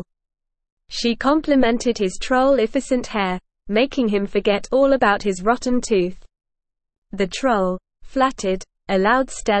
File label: ESL Short Stories for Kids Advanced NORMAL Reading The Rainbow Bridge